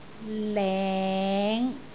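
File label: "leng5", Cantonese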